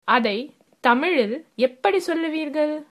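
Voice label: how to say, Tamil, female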